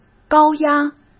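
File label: "高压", Mandarin Chinese; hsk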